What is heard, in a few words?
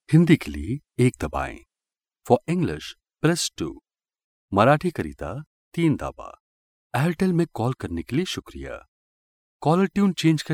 male hindi voice over talent upbeat